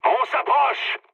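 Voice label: near waypoint, mood high, French; War Thunder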